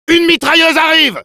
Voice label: sentry ahead, fr; "Une Mitrailleuse arrive!"; Demoman